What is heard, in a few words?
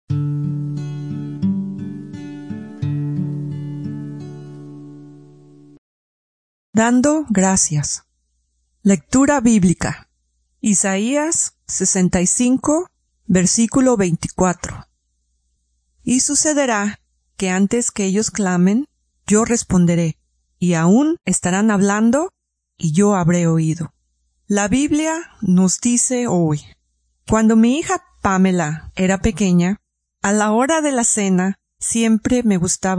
devociones devotions spanish latin america